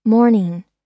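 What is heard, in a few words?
"mourning", American English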